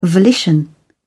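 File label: "volition", British English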